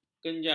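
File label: "kenjar", Malay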